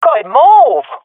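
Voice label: compliment, swat female; Counter Strike Model Pack; CS:GO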